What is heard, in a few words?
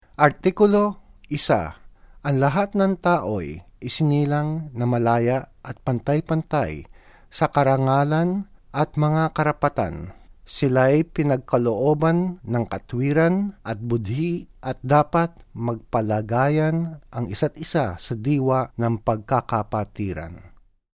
Tagalog